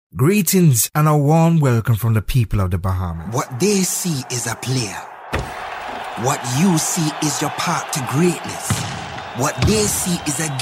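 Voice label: English Caribbean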